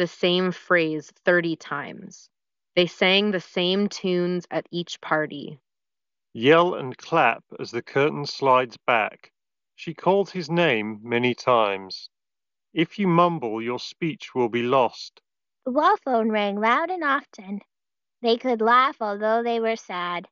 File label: Google Pixel Buds Pro Ideal microphone sample